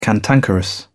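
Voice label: "cantankerous", British English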